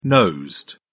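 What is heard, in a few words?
"nosed", British English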